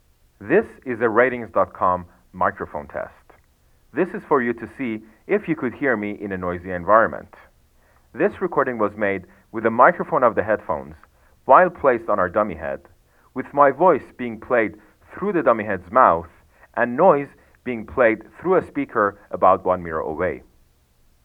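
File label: in line mic voice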